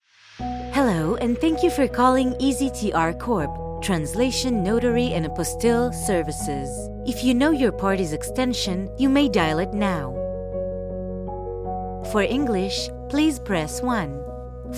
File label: english ivr